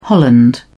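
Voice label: "holland", British English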